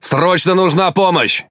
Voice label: Ru Speech Sos; Quick Commands